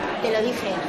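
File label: Voice Acting es Female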